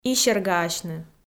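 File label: "исергаасьны", Komi, female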